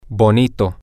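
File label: pretty cute, Spanish, male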